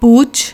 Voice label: "pooch", Punjabi